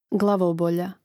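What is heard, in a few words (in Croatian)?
"glavobolja", hrvatski; mreznik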